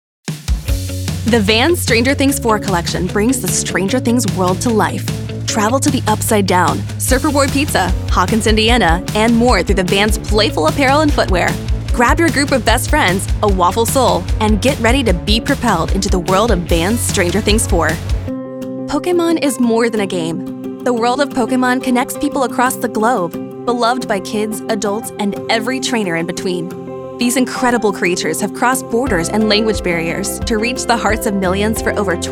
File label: CORPORATE